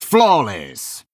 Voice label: Male Blood Elf Attack

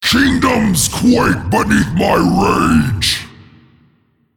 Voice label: Male Dragon Bark; Deathwing

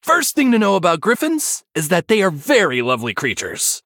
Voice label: Male Human Flavor

